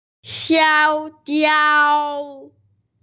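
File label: "xiang jiao", Mandarin Chinese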